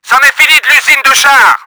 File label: LOST TANK FACTORY, french; Battle Engine Aquila; XBOX